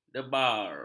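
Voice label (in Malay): "debar", Malay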